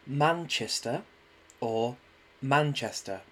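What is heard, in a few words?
Manchester, British English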